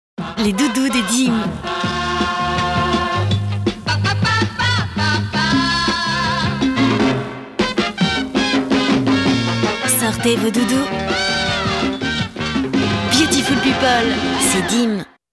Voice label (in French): pub télé